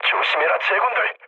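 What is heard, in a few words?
Korean near waypoint mood low; speech; War Thunder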